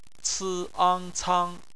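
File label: "cang", bopomofo, chinese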